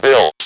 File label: "BUILT", Speak & Spell